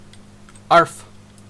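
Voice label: "arf", Canadian English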